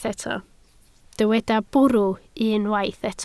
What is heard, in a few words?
"bwrw", Welsh